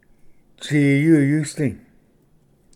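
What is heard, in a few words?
"tsiyu yusdi", Cherokee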